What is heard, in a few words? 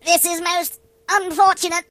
"Oh dear", British; hedgewars